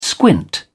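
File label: "squint", British English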